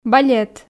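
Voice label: "балет", Komi, female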